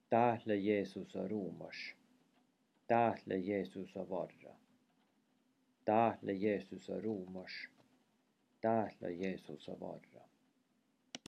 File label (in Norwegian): utdelingsord nattverd nordsamisk; samisk kirkeliv